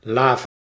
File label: "laef", Anglo Saxon